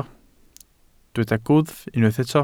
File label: "gwddf", Welsh